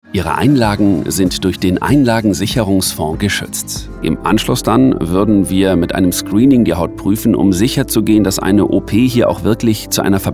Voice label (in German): imagefilm mix